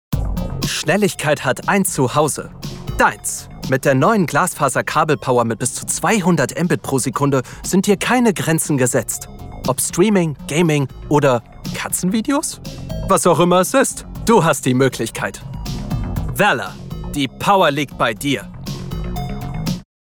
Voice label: Werbung Dynamisch, Jung Dynamisch, Commercial, German

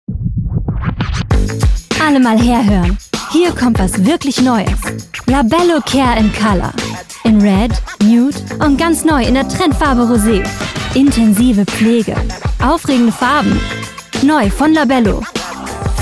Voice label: Sprecherdemo, Demo Werbung, German; Commercial (Werbung); Demo Werbung Labello; LOFT VOICES

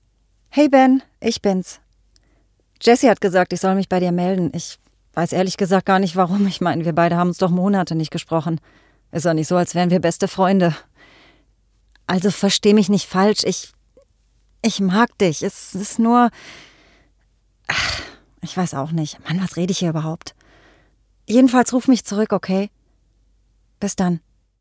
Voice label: mailbox

